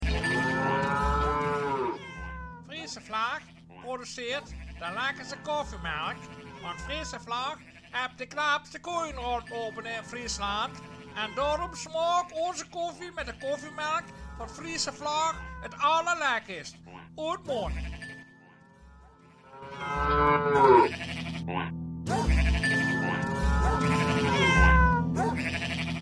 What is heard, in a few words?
radiocommercial; Friesche Vlag